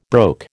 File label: "broke", American